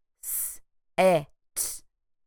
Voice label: s ĕ t, phonemic segmentation

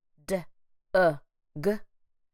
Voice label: d ŭ g, phonemic segmentation

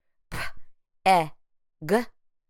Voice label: p ĕ g, phonemic segmentation

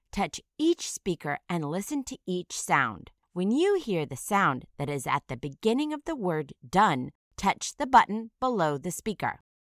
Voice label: "done", initial phoneme isolation

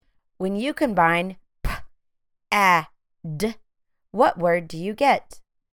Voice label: p ă d phonemic blending

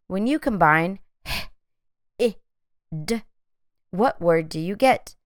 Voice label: h ĭ d phonemic blending

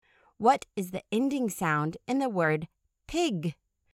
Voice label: pig ending sound

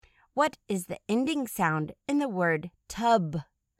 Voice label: "tub", ending sound

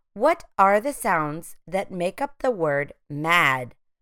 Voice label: mad phonemic segmentation

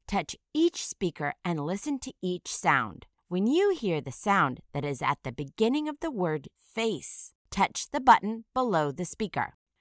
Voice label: "face", initial phoneme isolation

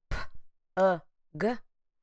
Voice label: p ŭ g, phonemic segmentation

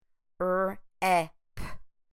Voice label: r ĕ p, phonemic segmentation

